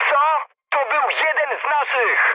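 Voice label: Polish player killed ally mood high; speech; War Thunder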